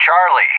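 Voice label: "Charlie", English; JTAC; Alphabet; DCS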